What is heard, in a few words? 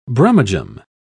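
"brummagem", English, British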